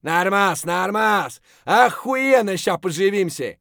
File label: mutter; Escape from Tarkov; scav2